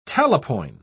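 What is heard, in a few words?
"talapoin", British English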